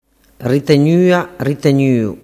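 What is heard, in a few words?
"ritegnuagnuu", Sicilian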